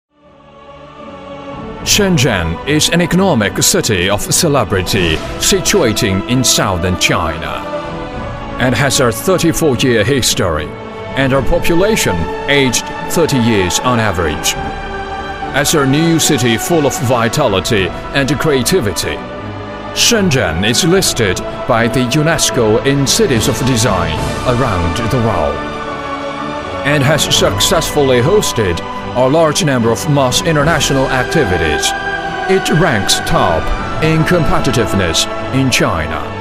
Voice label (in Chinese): 英男; 城市深圳